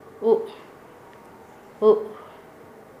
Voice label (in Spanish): ir, Nasa Yuwe; paez